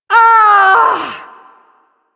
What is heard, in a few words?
Ability Long Cast, Barks; Warframe; Corpus Lich; Persona B